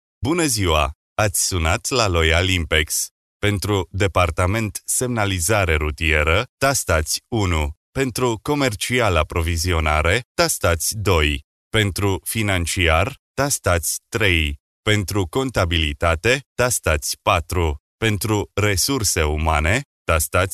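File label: Romanian male voice over, upbeat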